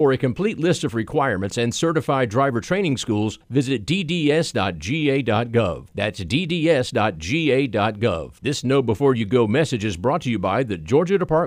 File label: Radio Spot Drivers Ed; GA DDS Drivers Ed for 17 year olds